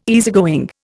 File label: "easygoing", Indian